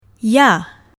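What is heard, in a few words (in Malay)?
"yah", Malay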